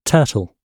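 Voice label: "turtle", British English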